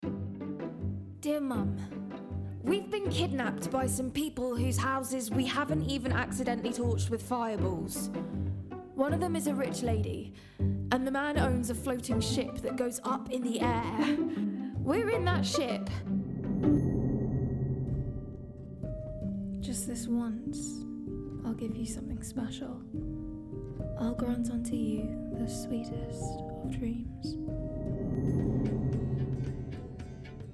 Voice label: female English multiple accents gaming and character compilation